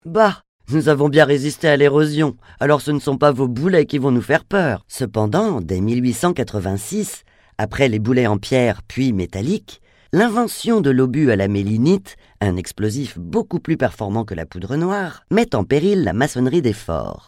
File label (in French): Audioguide; Musee Laon